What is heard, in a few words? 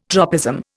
"tropism", Indian